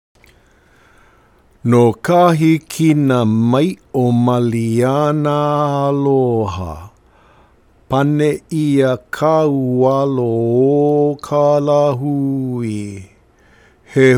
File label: Pronunciation Practice